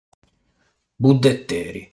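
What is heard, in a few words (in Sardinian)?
"Buddeteri", Sardinian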